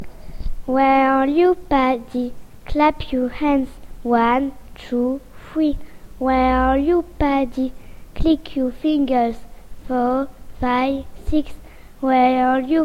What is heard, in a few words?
anglais